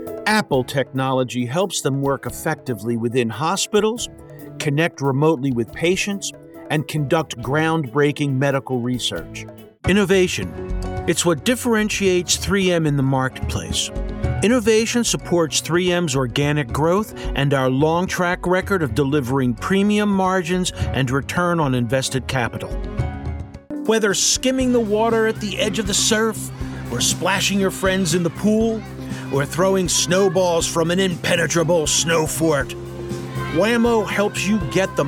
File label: Corp Narration